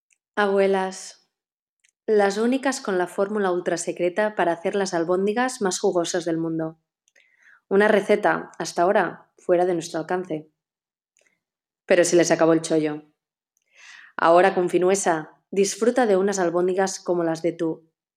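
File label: European Spanish; GoLocalise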